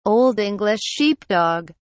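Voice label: "old english sheepdog", British English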